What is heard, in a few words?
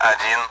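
Speech, Russian, AWACS; Digits; DCS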